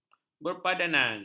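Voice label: "berpadanan", Malay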